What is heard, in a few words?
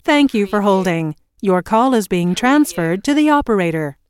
US female phone prompt Thank you for holding - Your call is being transferred to the opera; Thank you for holding - Your call is being transferred to the operator; lynne-publishing